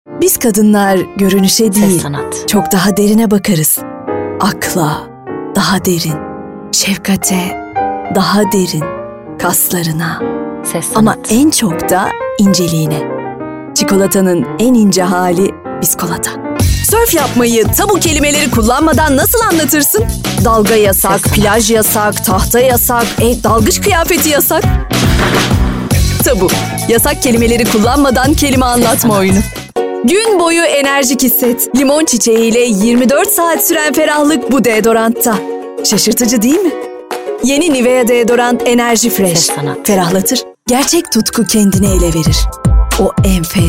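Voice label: Turkish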